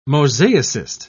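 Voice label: "mosaicist", British English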